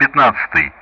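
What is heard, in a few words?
Speech Russian; DCS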